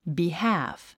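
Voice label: "behalf", English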